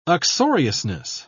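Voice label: "uxoriousness", British English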